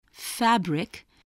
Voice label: "fabric", voice